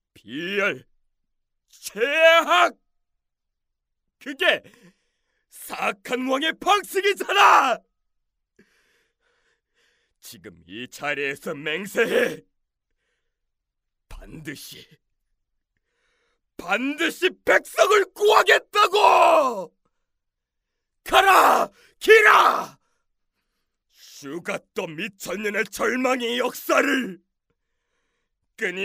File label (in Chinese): 韩语男声 40多岁的国王